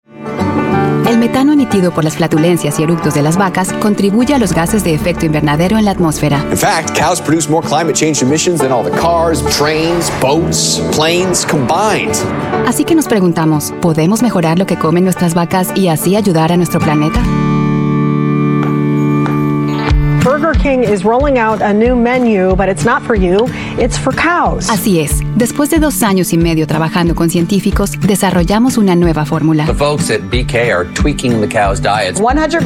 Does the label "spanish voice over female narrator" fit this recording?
Yes